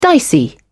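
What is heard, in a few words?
"dicey", British English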